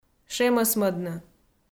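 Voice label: "шемӧсмӧдны", Komi, female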